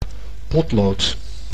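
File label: "potlood", Dutch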